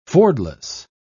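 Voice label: "fordless", en, uk British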